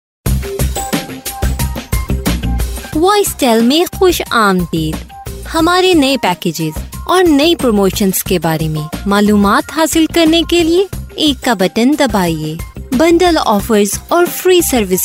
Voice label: Urdu, Urdu Female